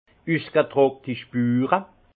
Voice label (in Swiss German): "spure", alsace; jambes prononcées; vin